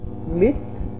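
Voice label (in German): "mit", German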